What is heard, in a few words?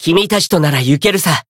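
Voice variable chant, Japanese; curio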